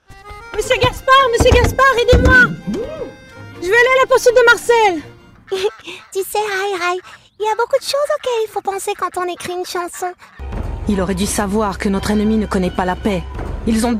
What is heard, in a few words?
french animation